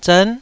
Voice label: "zern9", taiwanese syllables